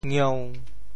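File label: "ngieu1", Teochew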